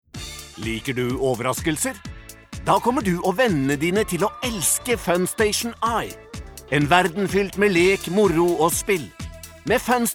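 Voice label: Norwegian cheerful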